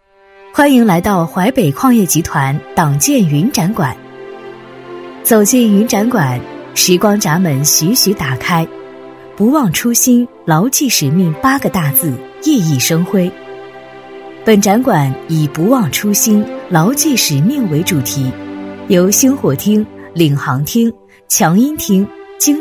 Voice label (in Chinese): 女, 专题, 党建云展馆, 抒情; 大气质感; 沉稳大气